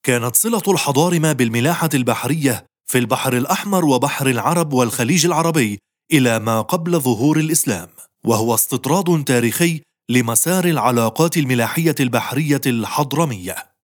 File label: arabic documentaries